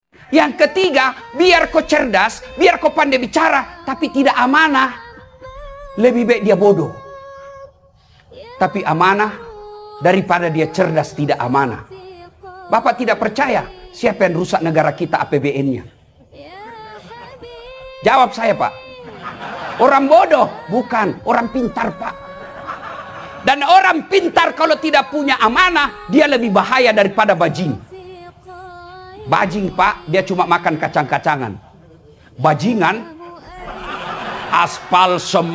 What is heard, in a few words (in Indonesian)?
ceramah lucu, dakwah islam; ustadz das'ad latif terbaru